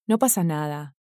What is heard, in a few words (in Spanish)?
no pasa nada, Spanish